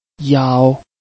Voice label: "yao1", Chinese

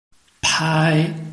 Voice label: "pai1", Chinese

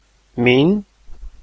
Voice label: "min2", Chinese

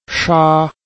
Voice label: "sha1", Chinese

